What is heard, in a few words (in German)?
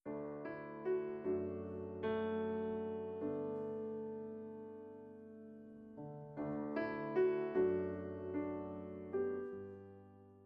trance so verschwindet dein schmerz sprecher